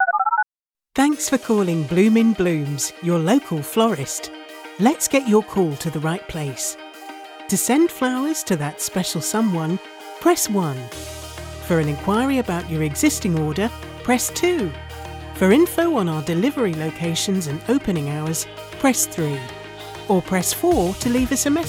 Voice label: IVR reel